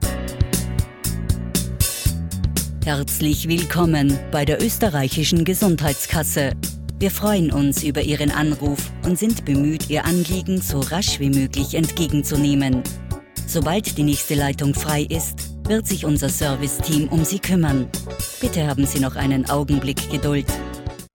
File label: telephone